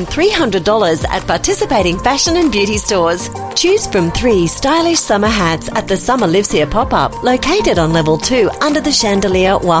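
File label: AU English Shopping Retail, Australian English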